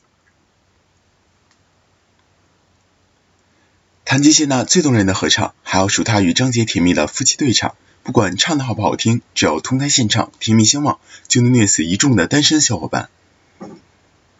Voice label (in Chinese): 综艺类